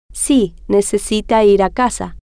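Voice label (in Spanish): si Necesita Ir Casa, spanish, female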